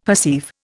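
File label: "perceive", Indian English; Dictionary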